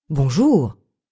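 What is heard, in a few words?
"bonjour", French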